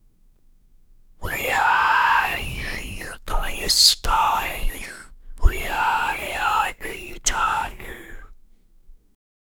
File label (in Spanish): voz susurrada de hombre, angry